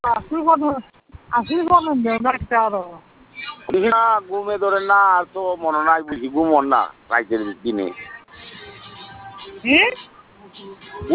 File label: call center transfer